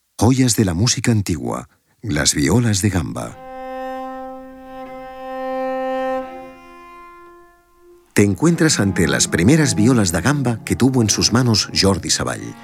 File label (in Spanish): audioguia; delamusica espanyol